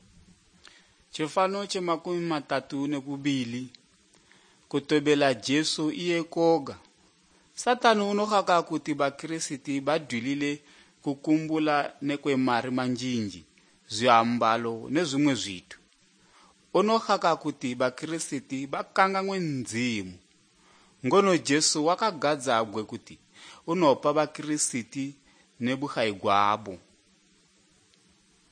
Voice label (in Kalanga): good news kalanga lilima, drama; bible